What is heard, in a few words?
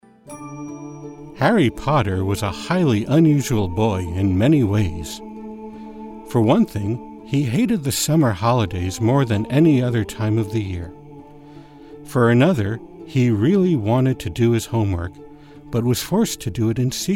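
Narration; Harry Potter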